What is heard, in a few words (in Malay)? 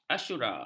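"asyura", Malay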